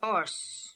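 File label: "Ors", pronunciations; Fourth Way; Beelzebub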